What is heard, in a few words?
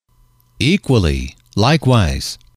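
"equally likewise", English, male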